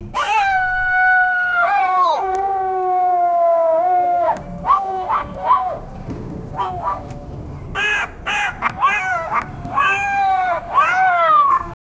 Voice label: an announcer anouncing a

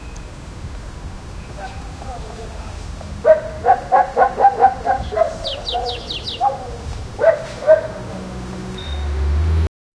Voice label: urban street human voice

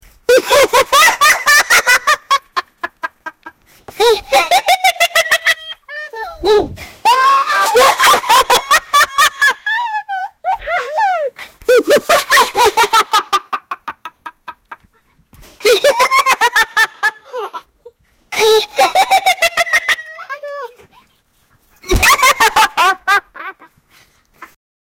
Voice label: a 18 year old young, lifestyle

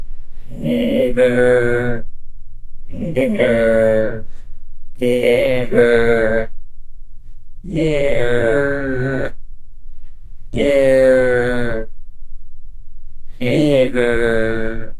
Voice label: game lo fi voice

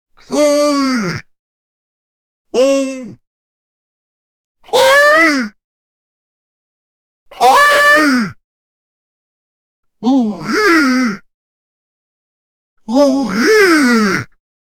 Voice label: a cartoon like long accus; characters

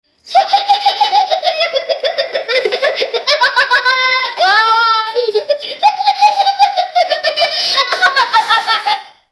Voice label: greek 2th grade kid; education

